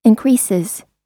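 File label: "increases", American English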